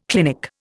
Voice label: "clinic", Indian